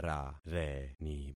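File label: "rareni", concatenated syllables; Affixing experiment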